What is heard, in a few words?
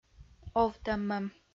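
"овтӧммӧм", Komi, female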